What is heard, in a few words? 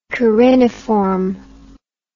"cariniform", English